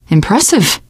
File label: hurrah, teen girl, survivor voice